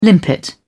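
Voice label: "limpet", British English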